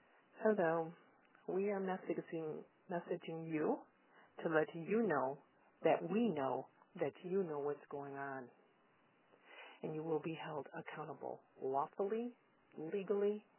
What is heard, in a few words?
voice message